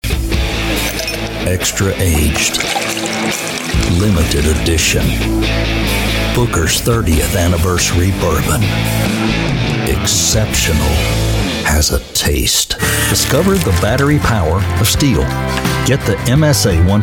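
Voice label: American English, Commercial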